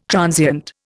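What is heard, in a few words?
"transient", Indian English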